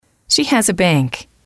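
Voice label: "she has a bank", English, female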